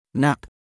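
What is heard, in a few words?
"nap", English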